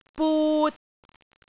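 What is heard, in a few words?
"but3", Cantonese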